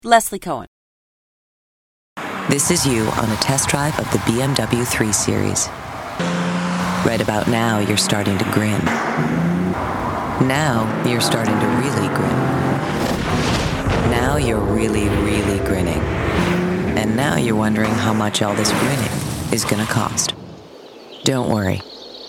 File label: commercial; Innovative LA